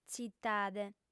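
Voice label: "tzitade", female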